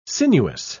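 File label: "sinuous", spoken word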